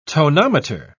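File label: "tonometer", British English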